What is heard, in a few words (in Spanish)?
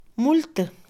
multa, Mixe, Mujer; INALI